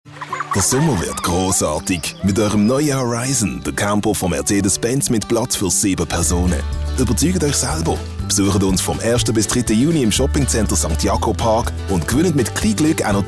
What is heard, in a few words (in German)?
Werbung CH BS, schweizerdeutsch